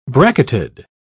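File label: "bracketed", English, British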